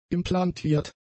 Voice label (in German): "implantiert", German; adjectives